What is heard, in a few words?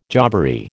"jobbery", American